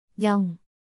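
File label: "녕", Korean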